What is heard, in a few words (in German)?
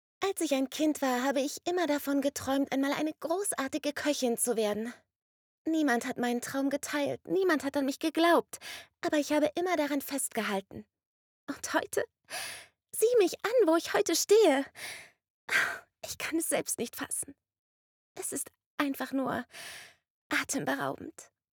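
Anime vertraeumt, sprecherin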